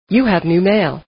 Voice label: female new mail; email